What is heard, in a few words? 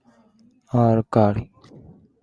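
"alkali", Japanese, male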